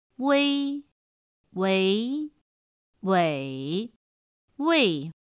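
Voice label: "ūi úi ǔi ùi", Chinese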